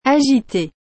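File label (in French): "agité", French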